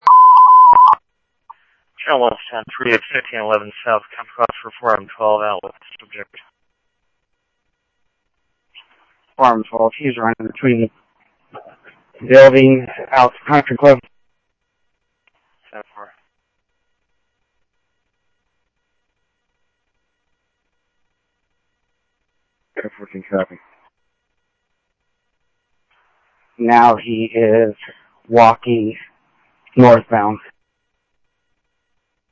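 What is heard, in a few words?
Mesa Police Department, scanner audio trunk; Mesa Simulcast; MESA PD 1 Fiesta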